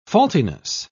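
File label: "faultiness", British English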